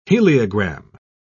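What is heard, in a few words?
"heliogram", British English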